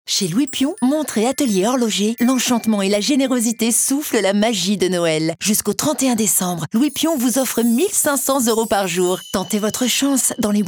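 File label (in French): Noel promo tendre, voix off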